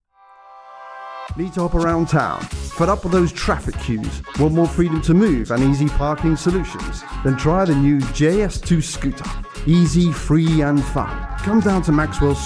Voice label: Commercial Scooter Advert VO and FX, Promo upbeat A New Scooter